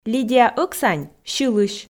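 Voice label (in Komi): лидия ӧксань сьылысь, Komi, female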